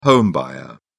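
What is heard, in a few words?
"home buyer", British English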